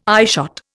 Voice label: "eyeshot", Indian